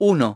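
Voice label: "one", English; iOS 4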